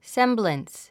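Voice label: "semblance", English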